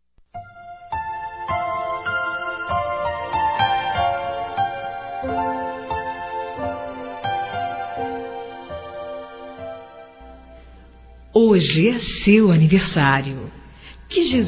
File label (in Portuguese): Neutro Católico feminino; Aniversários; Aniversários diversos